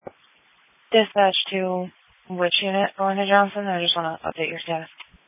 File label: Police Tactical Encrypted